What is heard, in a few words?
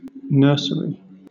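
"nursery", British English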